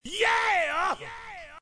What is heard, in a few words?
accapella "yeah"